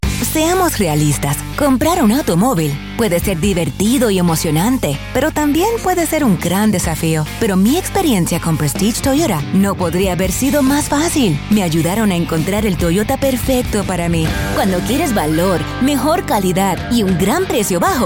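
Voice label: Spanish Automotive, Female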